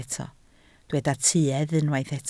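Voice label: "tuedd" Welsh, spoken word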